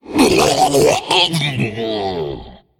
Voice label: Male Faceless Death